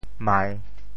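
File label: "mai3", Teochew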